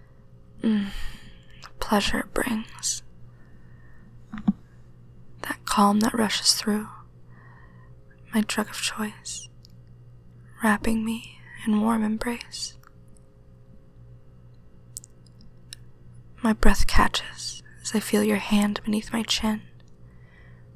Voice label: F4M Control, Erotic poetry, moans, whispery; Fsub; short and sweet; whispery seductive voice; oh la la